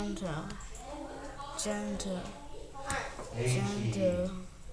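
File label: "gentle", spoken word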